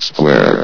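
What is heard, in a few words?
"square", male synthesized voice; vox; source engine; hl1; video games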